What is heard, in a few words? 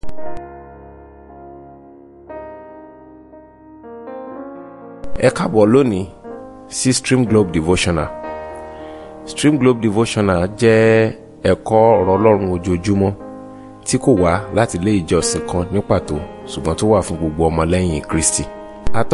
Devotional Audio Yoruba